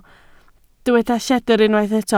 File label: "lledr", Welsh